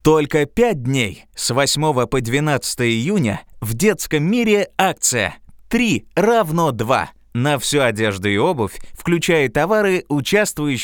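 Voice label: male voice, Russian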